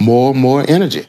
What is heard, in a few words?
LoFi Phrases, LoFi Vocals; Vengeance Samples